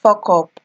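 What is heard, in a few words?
"fuckup", Nigerian Pidgin, female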